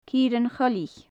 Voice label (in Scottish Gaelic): "cirean-choilich", gaelic; Faclan Nàdair